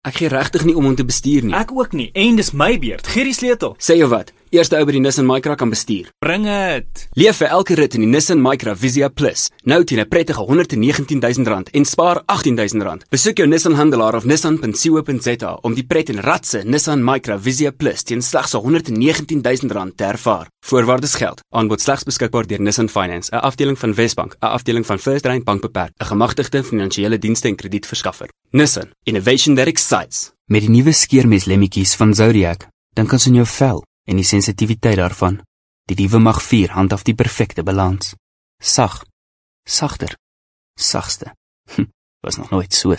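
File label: Afrikaans, Commercial; GoLocalise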